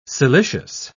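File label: "siliceous", British English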